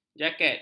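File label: "jaket", Malay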